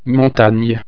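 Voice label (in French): "montagne", French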